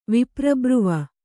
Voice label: "ವಿಪ್ರಬ್ರುವ", kannada

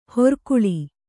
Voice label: "ಹಿರಿಕೊಳ್", Kannada

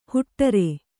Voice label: "ಹೊಟಾರ", Kannada

